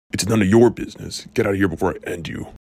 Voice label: person A end you pitch down